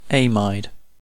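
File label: "amide", British English; pronunciations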